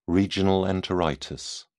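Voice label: "regional enteritis", British English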